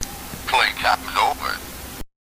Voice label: Wolf Wiimote Sound